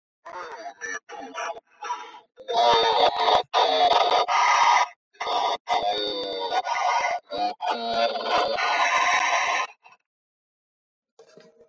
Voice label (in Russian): u u strashnyi golos